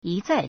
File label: "yi1 zai4", Chinese